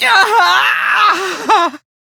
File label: Death, Male; Warframe; Railjack; Perrin Sequence